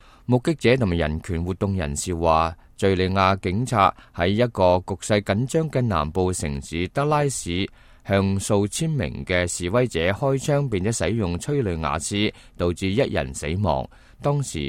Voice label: cantonese, news